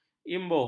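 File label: "imbuh", Malay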